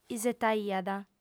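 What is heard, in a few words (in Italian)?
"isetaiat", lingua sarda, fem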